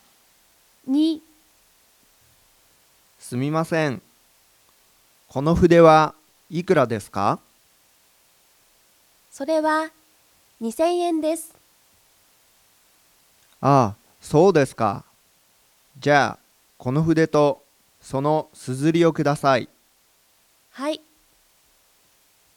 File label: Conversation